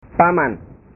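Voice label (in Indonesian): "paman", Indonesian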